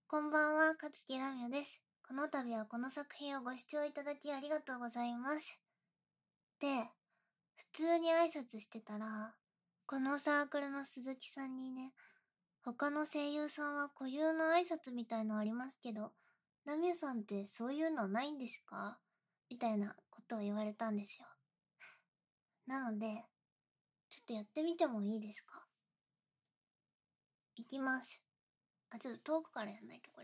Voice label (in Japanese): フリートーク, ASMR; SEなし